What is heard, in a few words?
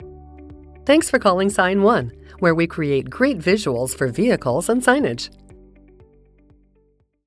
Voicemail; call tracking services